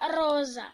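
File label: pink, Serbian; gcompris-data; colors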